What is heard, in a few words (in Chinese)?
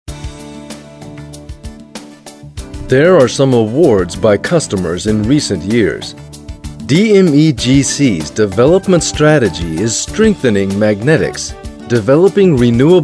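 外籍男英_外语_美式英语_宣传片_轻松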